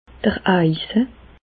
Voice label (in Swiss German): "eise", Bas Rhin; furoncle; medecine